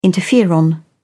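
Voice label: "interferon", English, British English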